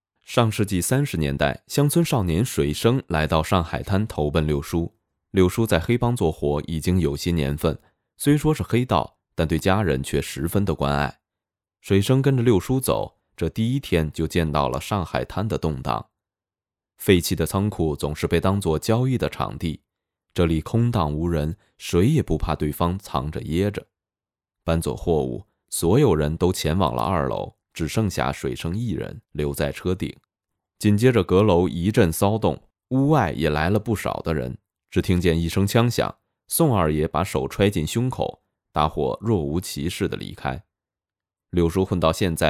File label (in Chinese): 电影解说类